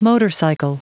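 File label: "motorcycle", English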